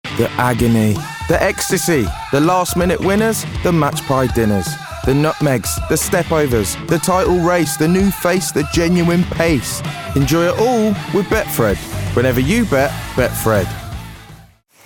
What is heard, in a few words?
male english brummie accent commercial; english northern accents; betfred